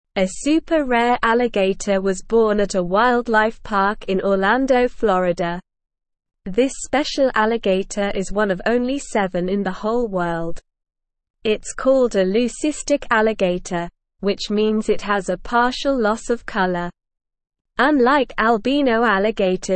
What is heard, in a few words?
English Newsroom Upper Intermediate SLOW Reading; Rare White Alligator Born at Orlando Wildlife Park